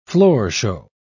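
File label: "floorshow", British English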